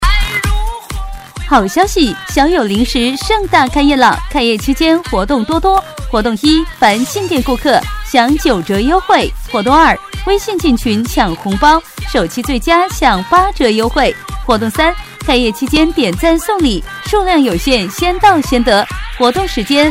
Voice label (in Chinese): 女 促销 零食 配乐版; 小友零食